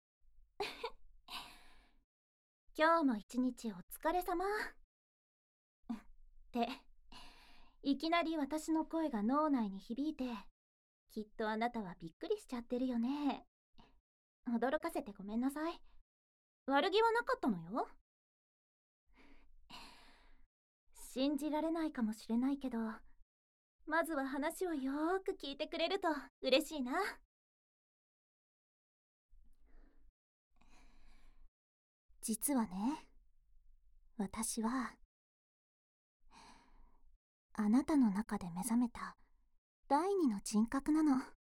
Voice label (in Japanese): 自己紹介（効果音なし）, ASMR; 第二人格発動!美少女らしい自分との対話; シンクロチクニー ～もしも、俺の中の乳首開発好きな女の子の人格が目覚めたら…; KU100